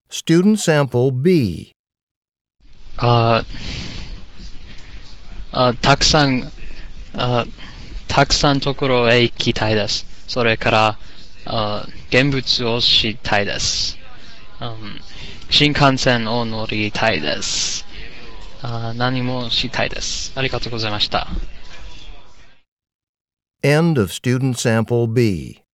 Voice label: japanese conversation

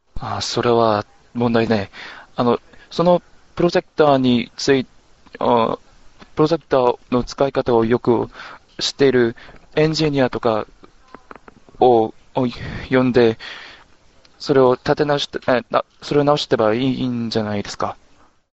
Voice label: Japanese Return Telephone Call

